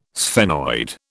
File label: "sphenoid", British